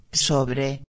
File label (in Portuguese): "sobre", espanhol